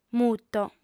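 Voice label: "muto" Sardinian, female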